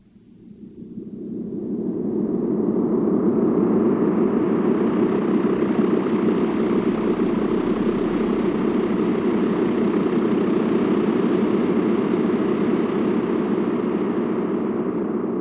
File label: Whispering